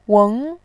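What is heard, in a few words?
"weng", Mandarin Chinese, tone 2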